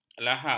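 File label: "lahap", Malay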